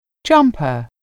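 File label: "jumper", English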